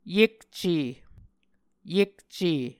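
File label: "yekce", Muscogee word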